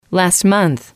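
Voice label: "last month", English, female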